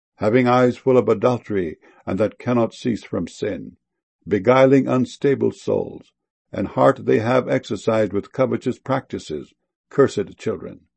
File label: beguiling